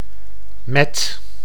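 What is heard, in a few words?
"met", Dutch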